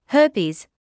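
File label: "herpes", British English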